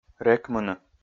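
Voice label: "рекмыны", Komi, male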